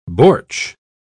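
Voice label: "bortsch", British English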